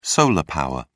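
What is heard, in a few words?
"solar power", English, British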